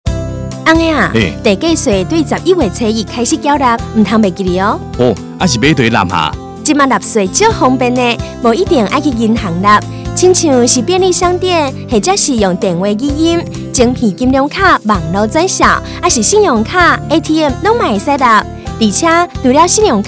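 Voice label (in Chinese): 地價稅宣導廣播廣告(台語版)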